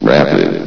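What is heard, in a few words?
"rapid", male synthetic announcer voice; source engine; hl1; video games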